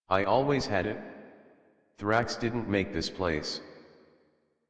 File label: Adult, en, Dialog